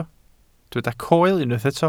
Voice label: "coel", welsh